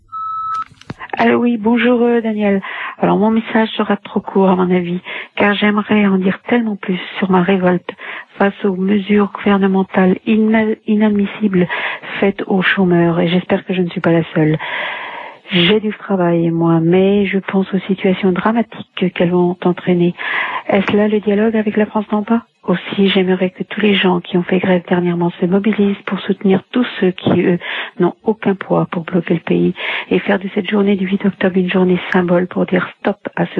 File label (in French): Assurance chomage repondeur